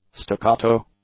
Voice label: "staccato", english; adjectives